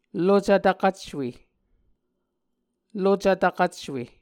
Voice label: "lucv tvkvcwe", Muscogee; Mvskoke Words with Voicing Consonants; Onvkv Ohhvyayices